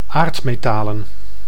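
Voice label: "aardmetalen", Dutch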